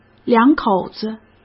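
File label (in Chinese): "两口子", Mandarin Chinese; hsk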